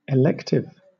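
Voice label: "elective", British English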